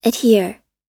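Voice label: "adhere", US English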